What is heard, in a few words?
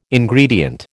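"Ingredient", American English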